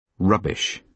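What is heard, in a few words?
"rubbish", British English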